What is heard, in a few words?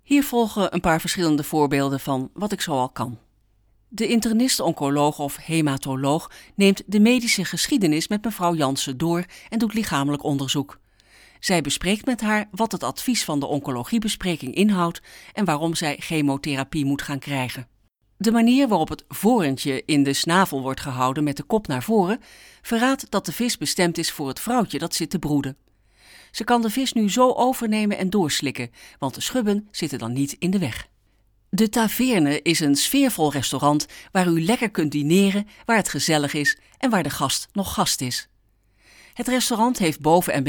dutch narration